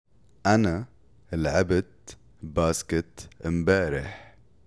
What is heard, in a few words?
Past tense Arabic